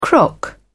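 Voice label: "crock", British English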